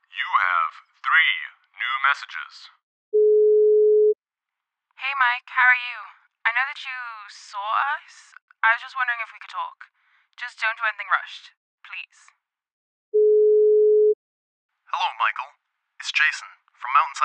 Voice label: Voices Answering Machine; Scorch

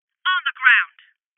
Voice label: Voices Order To Surrender Female

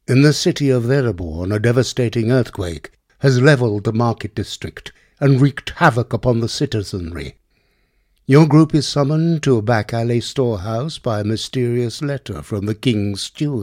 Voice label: Narration; The Deep Paths Labyrinth of Andokost

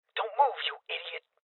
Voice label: Voices Guardian Male Young; Rebel Cops

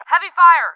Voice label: English Heavy

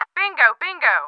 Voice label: English Bingo

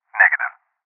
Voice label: Voices Refuse Male

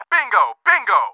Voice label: English Bingo

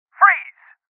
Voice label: Voices Freeze Male

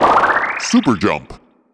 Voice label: Super Jump Voice; Marble Blast Gold

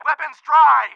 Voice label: English Dry

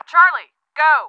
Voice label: English Go C

